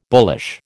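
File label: "bullish", American English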